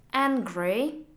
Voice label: angry